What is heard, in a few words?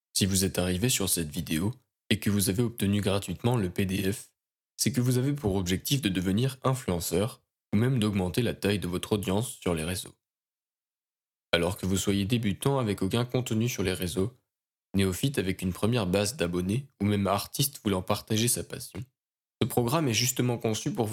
French, Male